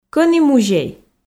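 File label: "кӧні музей", Komi, female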